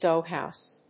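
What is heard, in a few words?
"doghouse", English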